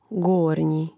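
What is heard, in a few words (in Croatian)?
"gornji", hrvatski; mreznik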